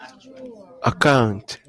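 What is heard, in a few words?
"account", Japanese, male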